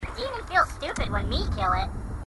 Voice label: grunt dopey; h2dialogue